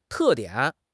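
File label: "te4 dian3", Mandarin Chinese; HSK3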